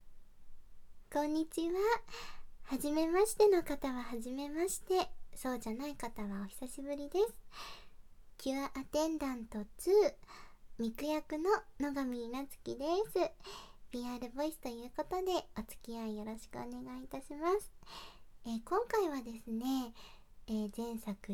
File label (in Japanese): PRボイス